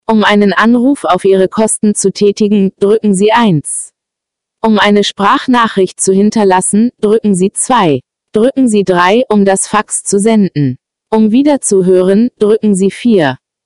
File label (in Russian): меню нем